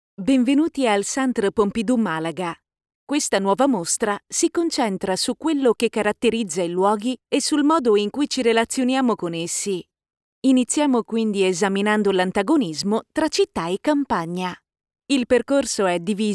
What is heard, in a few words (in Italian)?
Audioguida